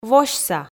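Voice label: "восьса", Komi, female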